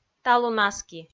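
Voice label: "талун-аски", Komi, female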